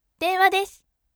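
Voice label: "denwadesu", bright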